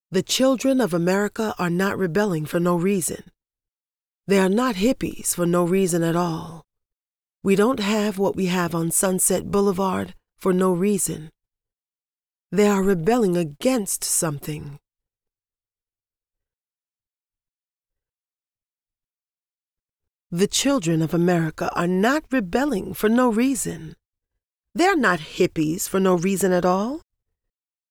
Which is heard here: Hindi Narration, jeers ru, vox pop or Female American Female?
Female American Female